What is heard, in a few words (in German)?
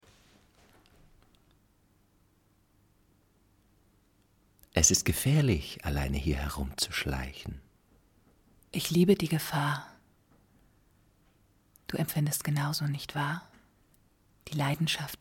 Hoerspiel erotisch berechnend